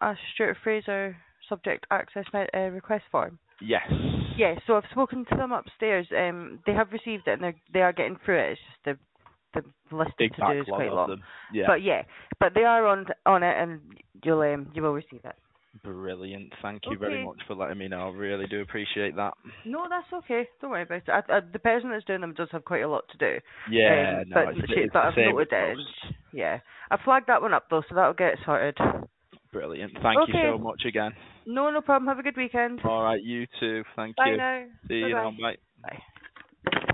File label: call recording